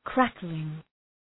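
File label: "crackling", English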